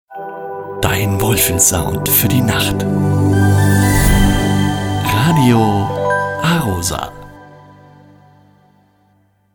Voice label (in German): Station ID, Nacht